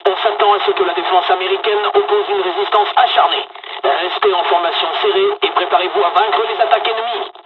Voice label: dialog, dialogs french; War Thunder